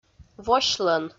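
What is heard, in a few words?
"восьлӧн", Komi, female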